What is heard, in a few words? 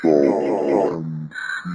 uhm fx loop; Ze Franks Pain Pack